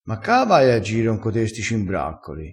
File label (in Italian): "cimbraccolo", crespinese